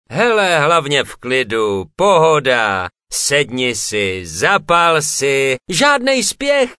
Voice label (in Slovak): sms hlásky cz